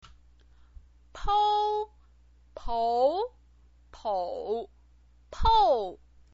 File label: "pou", chinese pinyin